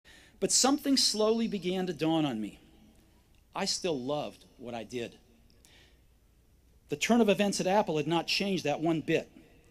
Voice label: Commencement Address; Steve Jobs; 2005; Stanford